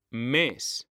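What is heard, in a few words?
"mes", Spanish; mekanta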